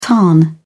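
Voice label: "tarn", British English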